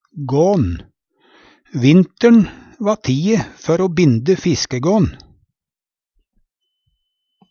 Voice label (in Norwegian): gan, Numedalsmål